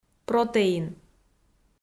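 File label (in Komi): "протеин" Komi, female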